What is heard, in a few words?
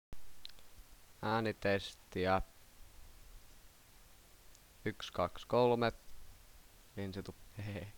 Narration